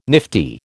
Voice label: "nifty", American